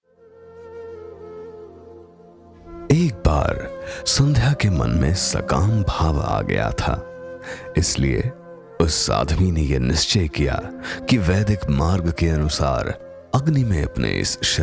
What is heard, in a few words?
Hindi spiritual; Full